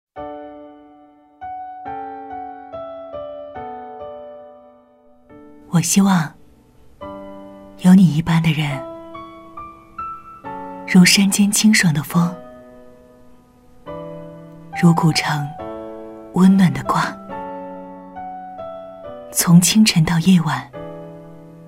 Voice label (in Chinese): 走心旁白